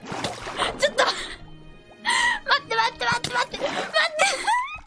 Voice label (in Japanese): 焦りボイス; マイクラ